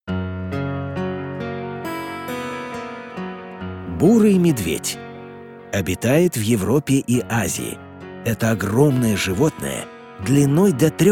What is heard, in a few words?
russian